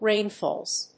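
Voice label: "rainfalls", English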